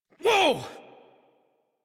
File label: Horse Stop Adult; Warframe; Duviri